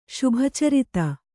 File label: "ಶುಭಚರಿತೆ", kannada